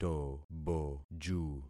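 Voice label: "toboju", concatenated syllables; Affixing experiment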